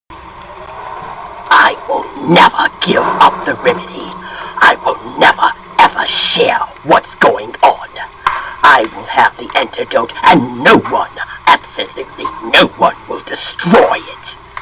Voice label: Spooky Male Villain

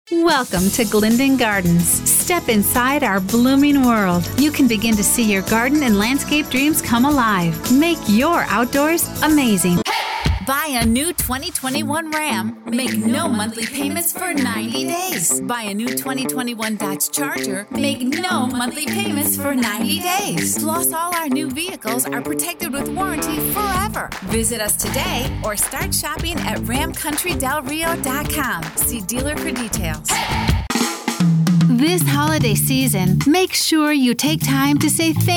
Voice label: Commercial

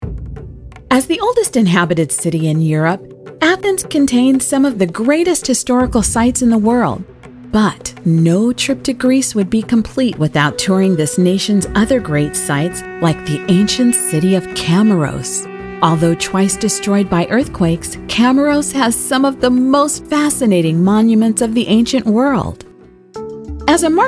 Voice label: Narration

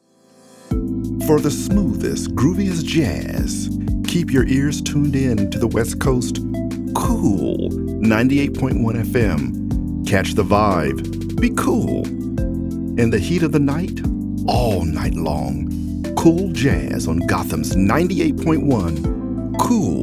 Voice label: Radio Station Imaging and Identifica; Cool FM

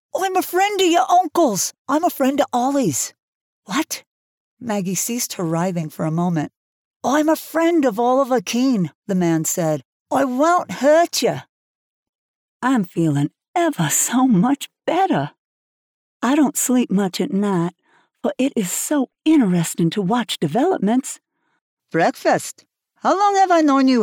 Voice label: Fiction Accent

